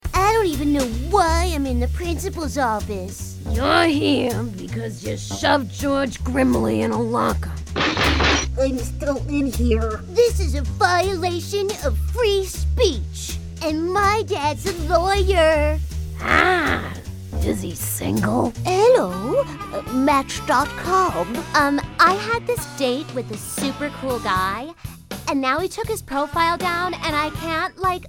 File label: animation